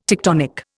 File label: "tectonic", Indian